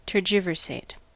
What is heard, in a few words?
"tergiversate", US; pronunciations